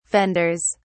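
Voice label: "fenders", English, British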